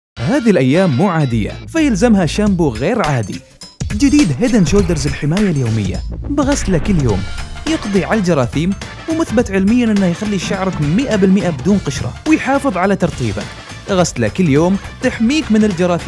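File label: TV commercial; Head Shoulders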